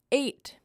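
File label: Eight, female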